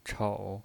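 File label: "chou", Mandarin Chinese pinyin voice, third tone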